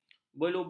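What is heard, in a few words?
"belubur", Malay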